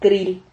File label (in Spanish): "kril", Silabas